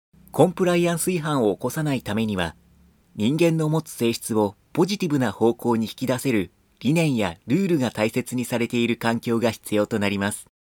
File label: male; やさしい